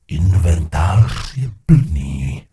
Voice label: Gnome Male bag full; Error Messages; wowpreklad; czwow; GnomeMaleErrorMessages

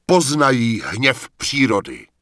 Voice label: Night Elf Male Attack My Target; wowpreklad; czwow; NightElfVocalMale

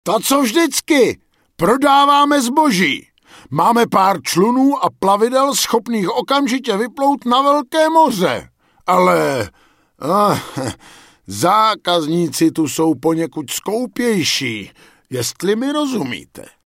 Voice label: Night Elf Male Warrior NPC Greeting; wowpreklad; czwow

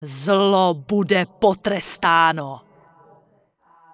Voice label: Night Elf Female Sentinel Pissed; NPC; wowpreklad; czwow

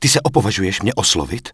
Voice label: Night Elf Male Incoming; wowpreklad; czwow; NightElfVocalMale

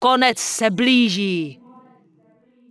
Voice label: Night Elf Female Goodbye; wowpreklad; czwow; NightElfVocalFemale

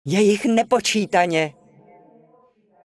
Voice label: Human Female Incoming; wowpreklad; czwow; HumanVocalFemale

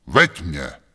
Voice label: Night Elf Male Follow Me; wowpreklad; czwow; NightElfVocalMale

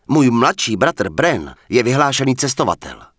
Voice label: Human Male Standard Greetings; NPC; wowpreklad; czwow

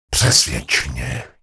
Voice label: Gnome Male err cant drop soulbound item; wowpreklad; czwow; GnomeMaleErrorMessages

